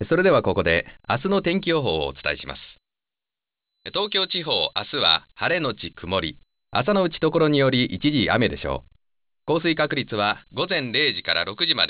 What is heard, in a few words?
male weather